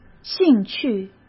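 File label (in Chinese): "兴趣", Mandarin Chinese; hsk; chinese flashcards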